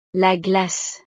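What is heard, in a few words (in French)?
"la glace", French; snacks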